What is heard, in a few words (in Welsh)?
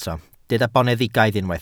"boneddigaidd", Welsh